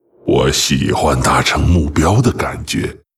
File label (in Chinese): pissed, zh